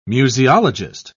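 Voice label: "museologist", British English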